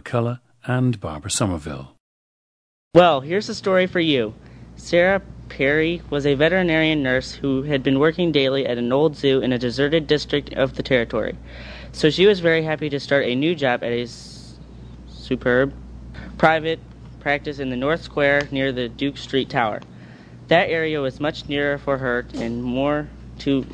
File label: missouri, dialects